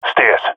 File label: loc stairs, jungle male epic; player vo; Counter Strike Model Pack; CS:GO